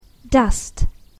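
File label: "dust", English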